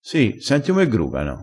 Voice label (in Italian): "gruga", dialettale crespinese